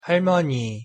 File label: "할머니" Korean pronunciation